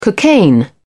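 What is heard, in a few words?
"cocaine", British English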